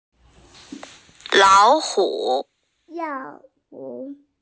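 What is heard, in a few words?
"tiger", hearing impaired; lao3hu3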